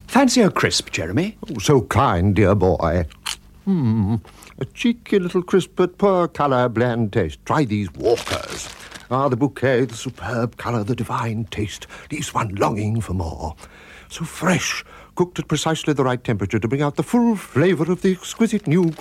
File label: Radio Advert; Walkers; Fancy A Crisp Jeremy; Radio Hallam